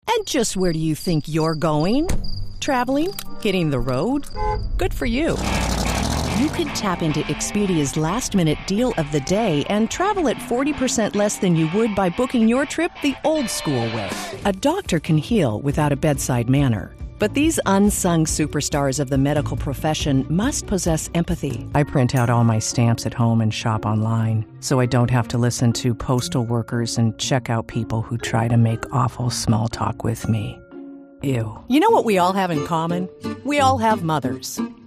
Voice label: Commercial